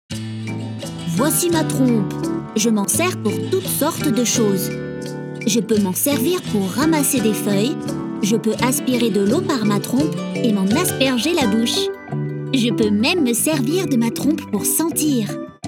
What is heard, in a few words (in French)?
Voix Enfants